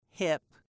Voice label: "I" "Hip"